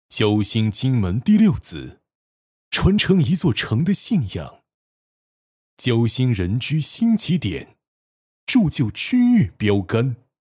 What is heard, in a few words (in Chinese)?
男, 广告; 心津门第六